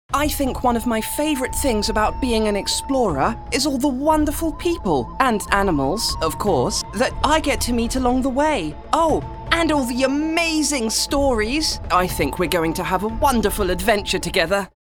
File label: Explorer, characters animation